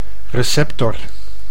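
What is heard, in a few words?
"receptor", Dutch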